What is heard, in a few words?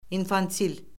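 "infantil", Azerbaijani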